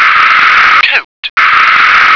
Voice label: "coat", English

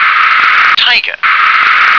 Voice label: "tiger", English

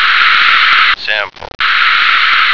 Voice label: "sample", American

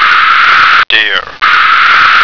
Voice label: "deer", American

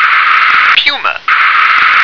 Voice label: "puma", English

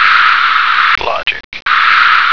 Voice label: "logic", American

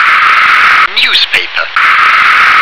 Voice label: "newspaper", English

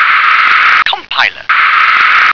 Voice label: "compiler", English

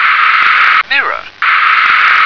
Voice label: "mirror", English

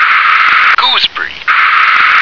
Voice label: "gooseberry", English

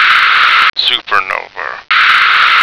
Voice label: "supernova", American

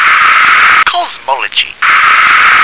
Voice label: "cosmology", English

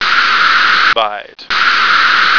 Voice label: "byte", American

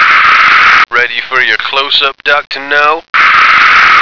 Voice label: "ready for", American

